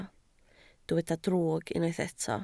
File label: "drwg", Welsh